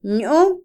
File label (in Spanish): dulce, Otomí, mujer; Percepción; INALI